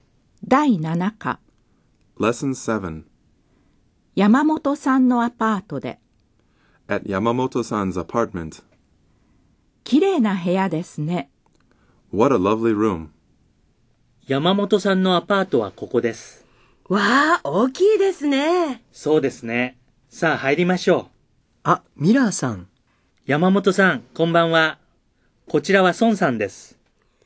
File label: Dialog